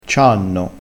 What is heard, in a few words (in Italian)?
"cianno", crespinese